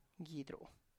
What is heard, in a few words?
"gidru", lip